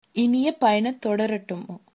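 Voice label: bon voyage, female, tamil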